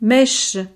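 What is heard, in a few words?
"meche", French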